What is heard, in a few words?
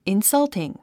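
"insulting", English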